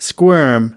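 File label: "squirm", American English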